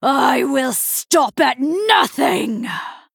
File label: Female Night Elf TRIGGER Inner Demon